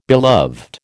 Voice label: "beloved", American

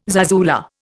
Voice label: "zarzuela", Indian

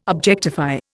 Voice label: "objectify", Indian

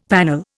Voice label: "panel", Indian; Dictionary

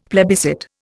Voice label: "plebiscite", Indian; Dictionary

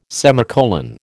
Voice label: "semicolon", American

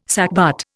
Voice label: "sackbut", Indian

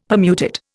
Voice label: "Permutit", Indian; Dictionary

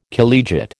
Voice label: "collegiate", American

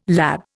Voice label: "lab", Indian

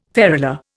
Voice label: "ferula", Indian

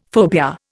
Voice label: "phobia", Indian; Dictionary

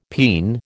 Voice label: "peen", American

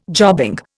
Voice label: "jobbing", Indian

